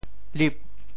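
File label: "lip8", Teochew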